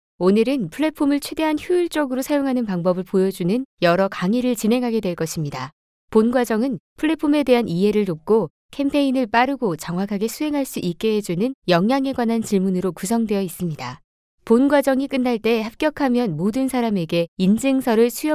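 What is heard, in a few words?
Korean, female, narration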